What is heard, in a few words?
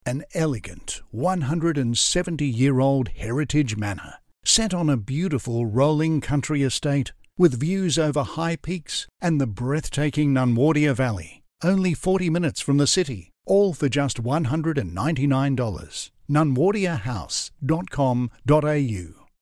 Classy